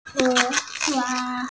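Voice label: "he2hua1", Mandarin Chinese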